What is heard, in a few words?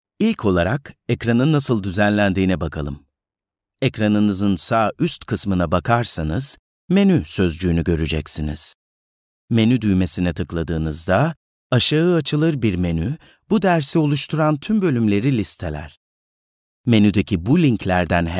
turkish male elearning